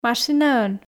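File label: "машинаӧн", Komi, female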